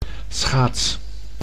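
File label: "schaats", Dutch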